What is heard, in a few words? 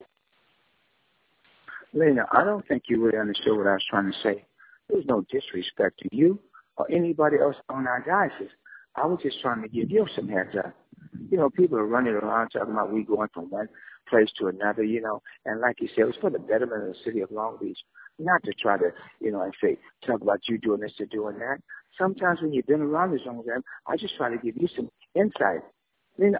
voicemail